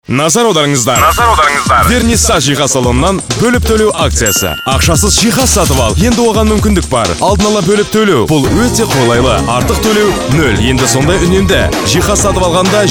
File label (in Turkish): Kazakca Erkek; seslendirme